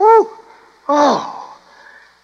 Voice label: "oh ohh", Voice

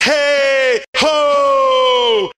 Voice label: "hey ho", voice; ooh ahh

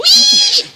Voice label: "wee", girl; ooh ahh

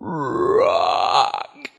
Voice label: "rock", voice; ooh ahh

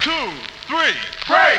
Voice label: "2 3" break; numbers and letters

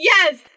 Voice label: "yes" female mad

